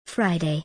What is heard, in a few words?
Friday UK